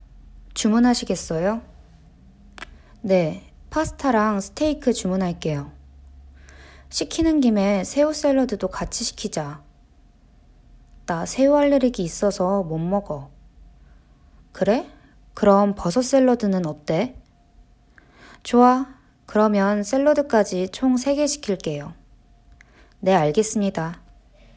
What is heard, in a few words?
conversation